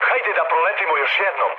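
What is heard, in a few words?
Serbian bomb failure mood low, speech; War Thunder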